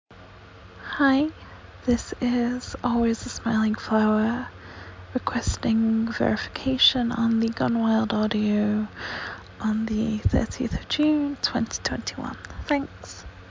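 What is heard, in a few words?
Verification, Female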